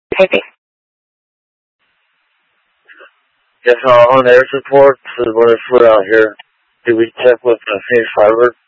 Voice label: Mutual Aid-Intersystem Interop B, scanner